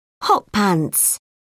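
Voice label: "hot pants", British English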